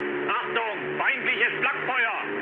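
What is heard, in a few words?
German damaged aa mood med, speech; War Thunder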